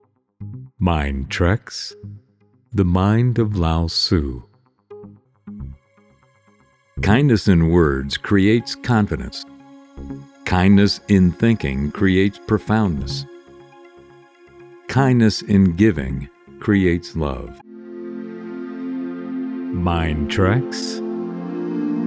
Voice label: Quotes VO Sampler